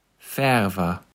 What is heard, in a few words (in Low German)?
"Färwer", Platt